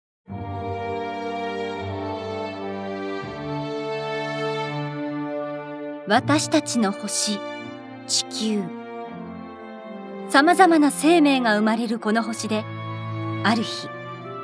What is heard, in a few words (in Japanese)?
ナレーション